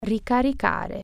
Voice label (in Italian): "recharge", Italian